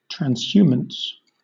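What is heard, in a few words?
"transhumance", British English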